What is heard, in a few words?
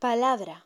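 "palabra", Spanish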